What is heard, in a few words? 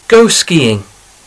go skiing, English; sport a hry